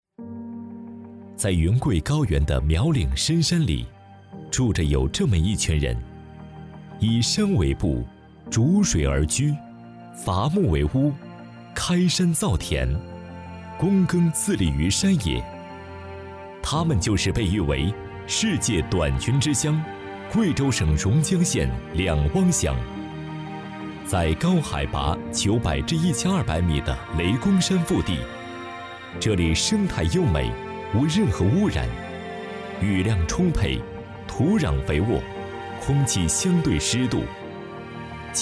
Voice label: 青年, 真人商业配音

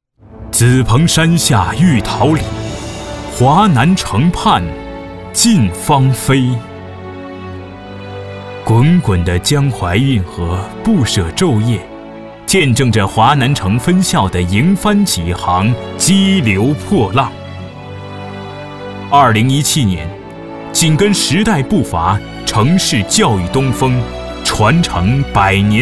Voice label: 宣传 学校 宣传 大气

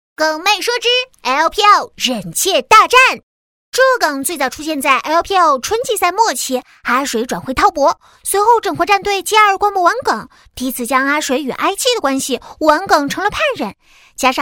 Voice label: 调侃

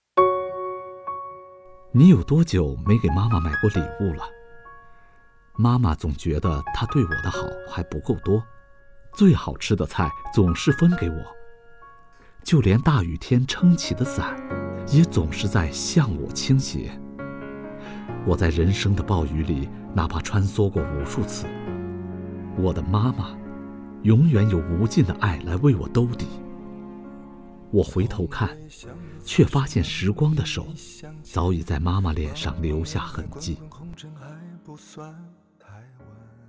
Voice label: 抒情文案试音

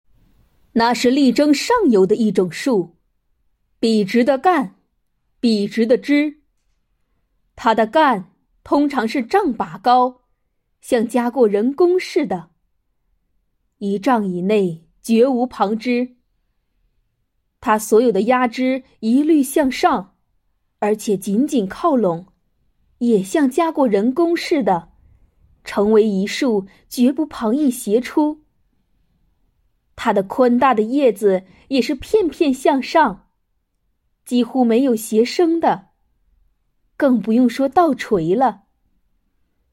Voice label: 胸腔 共鸣

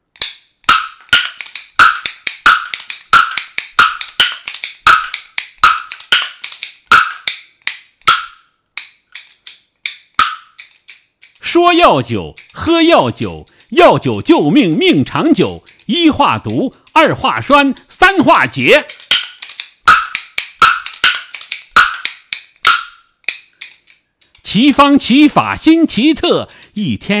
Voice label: 快板 广告; 东岳

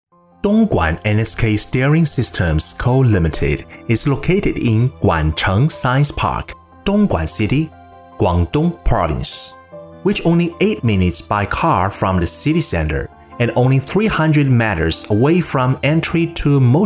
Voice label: 英文 宣传片; 恩斯克

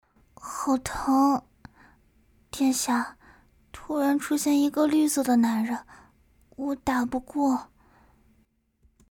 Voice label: 柔弱少女音

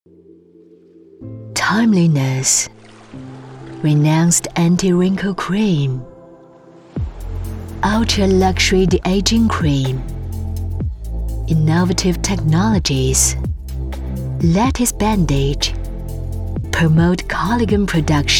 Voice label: 英文高级质感化妆品广告

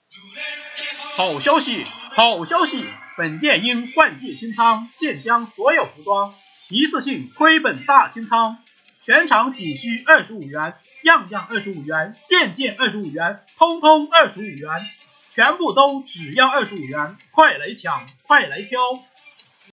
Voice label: 促销类

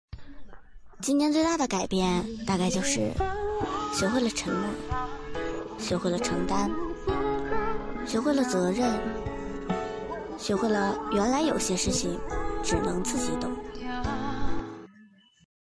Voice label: 旁白

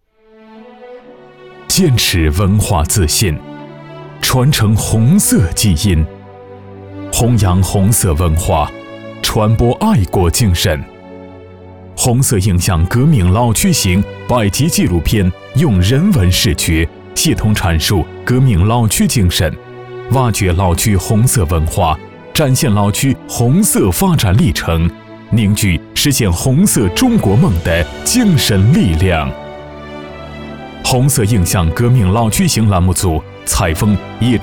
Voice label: 大气沉稳专题; 红色映像

